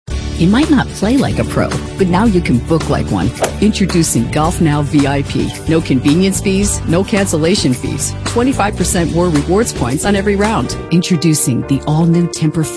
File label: Commercial